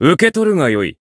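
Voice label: Voice heal, Japanese; claude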